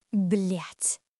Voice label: Russian, russian phrases insults; ロシア語のネガティブなフレーズ